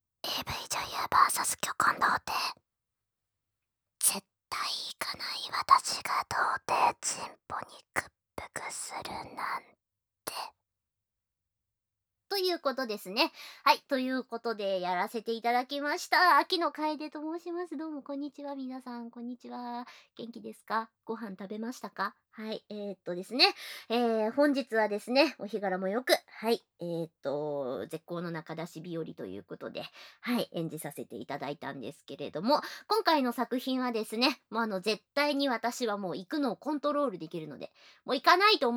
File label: FreeTalk, ASMR; KU100; AV女優VS巨根童貞